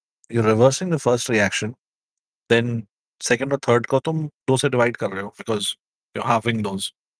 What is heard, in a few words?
voice message